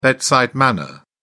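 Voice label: "bedside manner", English, British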